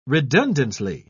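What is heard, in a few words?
"redundantly", English, British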